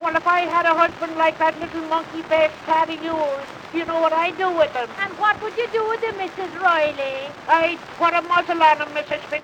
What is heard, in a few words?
Backyard conversation; edison